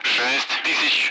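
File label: Speech, Russian, Numbers, 60; Allied Flight; DCS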